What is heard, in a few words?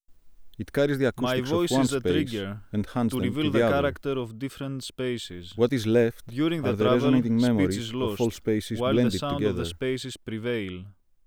2 voices anechoic